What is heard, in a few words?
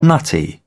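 "nutty", English, British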